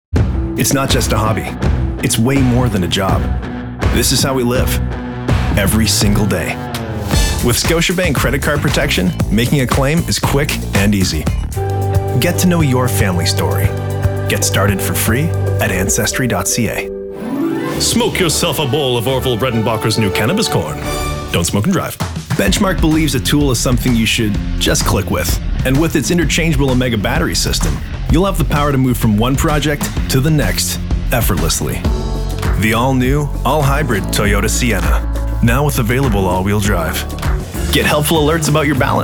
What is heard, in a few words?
Commercial; TaDa